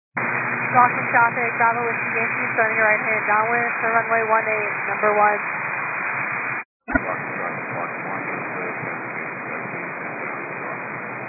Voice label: 122 725MHz, scanner radio